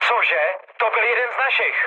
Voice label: player killed ally, speech cze, mood med